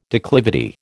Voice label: "declivity", American

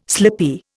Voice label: "slippy", Indian

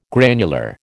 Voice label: "granular", American

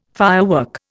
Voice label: "firework", Indian

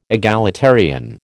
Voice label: "egalitarian", American

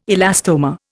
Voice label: "elastomer", Indian

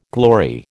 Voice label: "glory", American

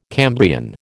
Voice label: "Cambrian", American

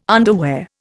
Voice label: "underwear", Indian

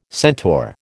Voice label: "centaur", American

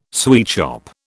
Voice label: "sweetshop", British

